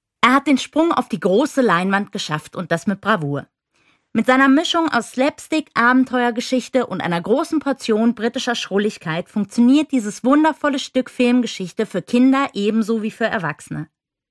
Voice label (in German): Audio Trailer